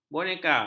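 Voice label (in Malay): "boneka", Malay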